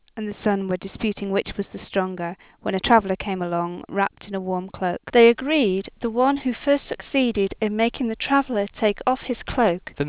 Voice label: two Male Two Female